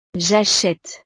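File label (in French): "jachete", French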